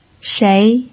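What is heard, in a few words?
"shei" Mandarin Chinese, second tone, pinyin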